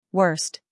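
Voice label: "scandal", American; worst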